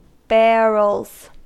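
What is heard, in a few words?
"barrels", US English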